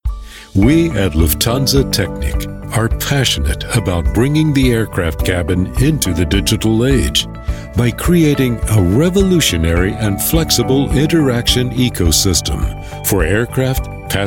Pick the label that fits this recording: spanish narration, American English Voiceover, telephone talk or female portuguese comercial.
American English Voiceover